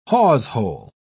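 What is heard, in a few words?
"hawsehole", British English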